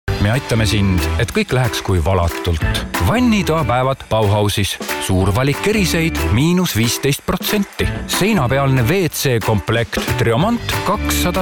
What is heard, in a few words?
Estonian M Commercial